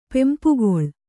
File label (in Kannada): "ಪೆಂಪುಗೊಳ್", Kannada